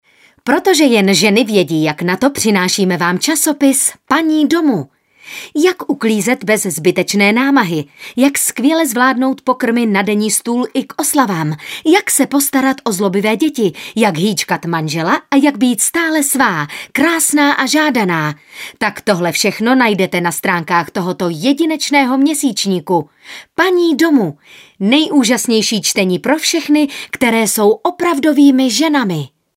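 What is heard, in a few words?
female Czech commercial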